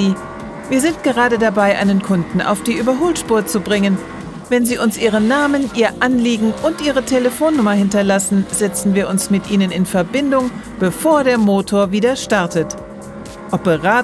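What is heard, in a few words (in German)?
Stimmprobe Anrufbeantworter Autohaus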